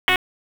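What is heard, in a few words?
Dispatch, p25; wake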